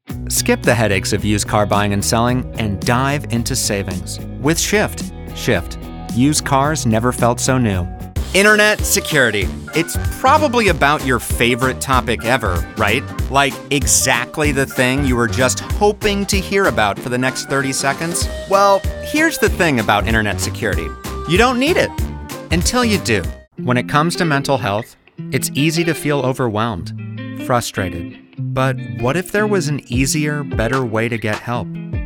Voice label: Commercial